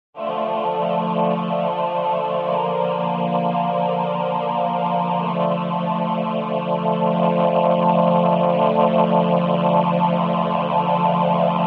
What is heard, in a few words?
Vocoder male; XILS Vocoder Plus